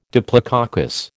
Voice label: "diplococcus", American

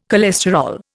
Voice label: "cholesterol", Indian

